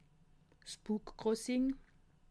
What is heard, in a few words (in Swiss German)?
"bookcrossing", alsace